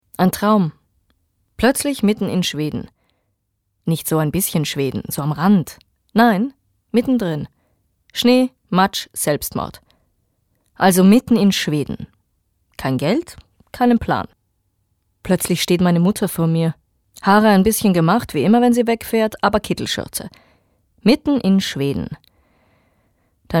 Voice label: Schauspiel Neutral

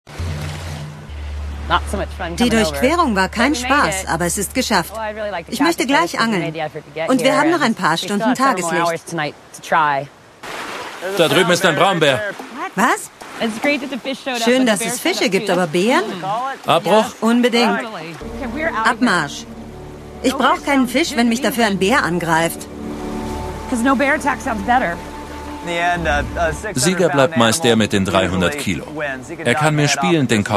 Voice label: Dynamisch

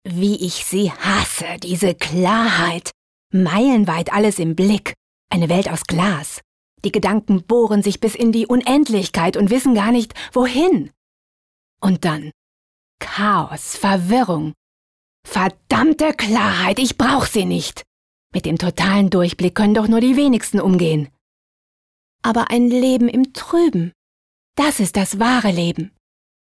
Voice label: Schauspiel Energisch

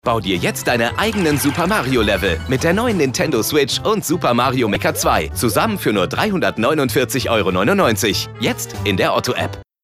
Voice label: W Fremdtake, Switch, Freundlich

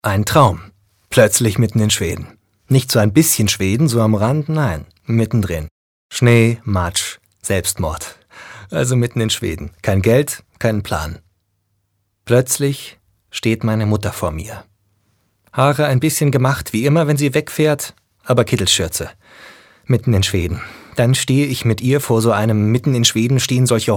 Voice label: Schauspiel Dynamisch

